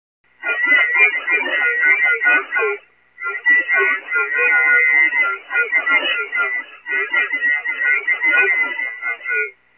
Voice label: FFT Style Voice Scrambling; Italian State Police Crypto #1